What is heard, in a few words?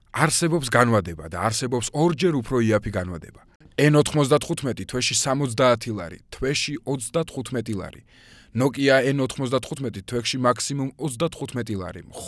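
georgian male narration; voice demos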